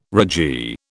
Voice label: "ragee", British